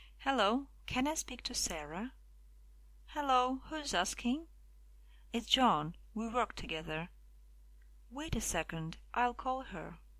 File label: phone talk